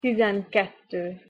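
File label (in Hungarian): "tizenkettő", Hungarian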